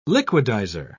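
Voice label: "liquidiser", British English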